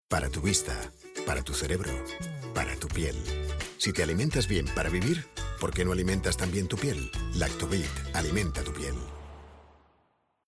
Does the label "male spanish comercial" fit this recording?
Yes